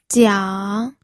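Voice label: "jiang3", Mandarin Chinese third tone; pinyin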